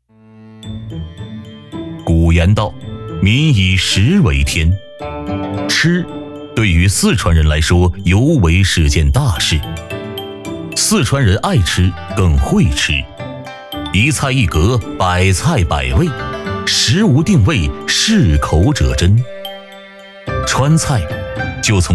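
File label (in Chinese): 男【舌尖】美食; 美食江湖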